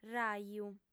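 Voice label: "raju", female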